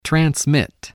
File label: "transmit", voice